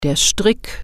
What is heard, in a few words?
"rope", German